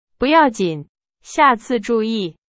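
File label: Chinese; hsk4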